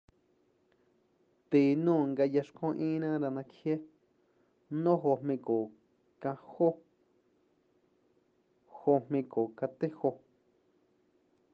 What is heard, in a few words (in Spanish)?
mazateco; Decenio